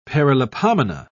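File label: "paralipomena", British English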